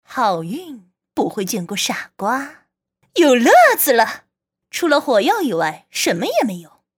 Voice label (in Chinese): 女枪数字